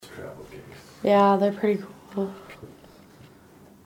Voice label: whisper